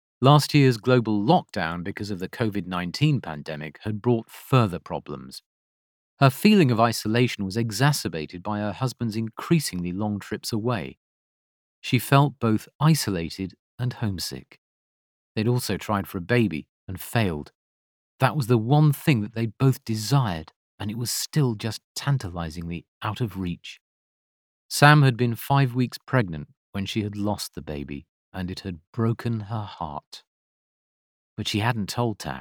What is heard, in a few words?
Audiobook Fiction